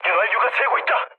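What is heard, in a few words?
Korean damaged oil mood low, speech; War Thunder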